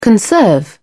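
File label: "conserve", English, British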